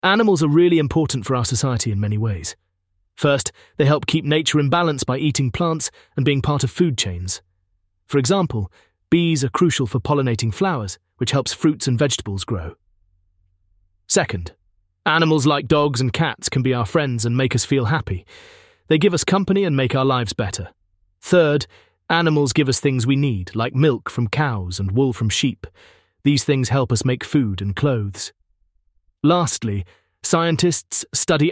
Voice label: Man; Nature; environment